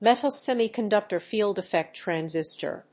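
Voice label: "metal semiconductor field-effect transistor", English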